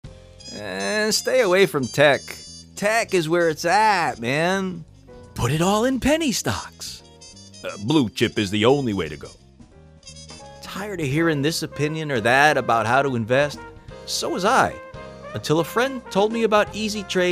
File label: investment, broadcast